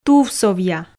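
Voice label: "тувсовъя", Komi, female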